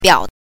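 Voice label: "biao", Mandarin Chinese pinyin, neutral tone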